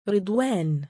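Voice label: "riDwaan", Arabic, male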